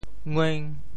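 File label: "ngueng5", Teochew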